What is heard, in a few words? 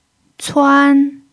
"cuan1", chinese